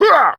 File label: Angry; Warframe; Tyl Regor Boss; Efforts